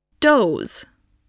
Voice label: "doze", spelling

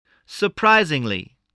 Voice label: "surprisingly", English

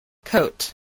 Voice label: "coat", voice

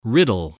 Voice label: "riddle", English spelling

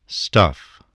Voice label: "stuff", spelling

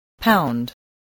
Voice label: "pound", English

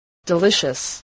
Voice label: "delicious", English

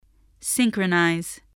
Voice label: "synchronise", English

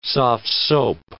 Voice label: "soft-soap", English

